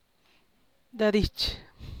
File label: "dadich", San Lucas Quiaviní Zapotec; dahdi’ihch